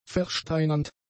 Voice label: "versteinernd", German; adjectives

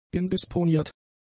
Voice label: "indisponiert", German; adjectives

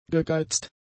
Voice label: "gegeizt", german; adjectives

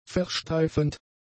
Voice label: "versteifend", German; adjectives

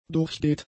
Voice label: "durchgeht", German; adjectives

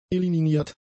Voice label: "eliminiert", german; adjectives

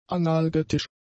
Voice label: "analgetisch", German; adjectives

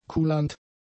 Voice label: "kulant", German; adjectives

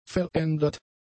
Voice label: "verändert", German; adjectives

